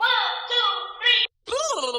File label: "1 2 3" oooh; Voice; numbers and letters